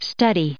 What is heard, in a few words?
"study", us